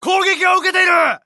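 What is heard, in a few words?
Japanese Neutral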